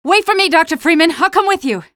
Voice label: squad reinforce single, female; Half-Life 2